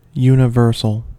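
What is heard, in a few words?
"universal", American English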